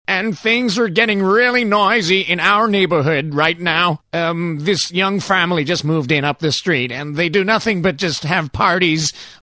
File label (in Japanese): 機嫌の悪いおじさん